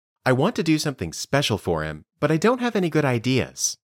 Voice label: Dictation